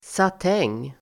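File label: "satäng", Swedish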